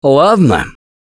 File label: pain relief first aid, russian, Survivor Voice; Left 4 Dead 2; Gambler